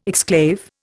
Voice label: "exclave", Indian